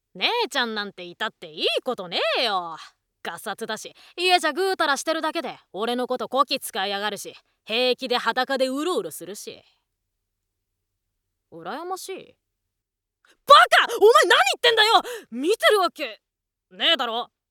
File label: boy